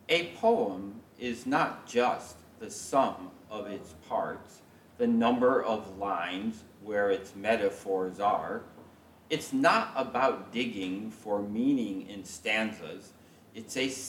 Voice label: p4 boys learning by heart